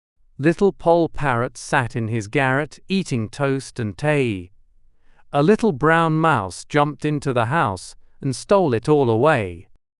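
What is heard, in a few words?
male British, classic rhymes; Little Poll Parrot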